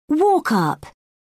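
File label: "walk-up", British English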